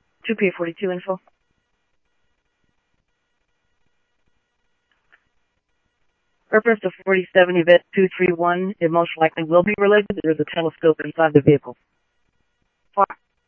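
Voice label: Scottsdale Police Department PD2 Scottsdale Police, scanner audio; SCTSDLE PD - PD2; H-Scottsdale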